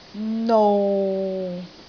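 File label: "nou6", Cantonese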